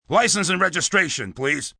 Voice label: License & registration please, Police, male; Talking; human voice speaking; VoiceBox